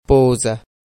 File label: "poza", pashto; pashto-grammar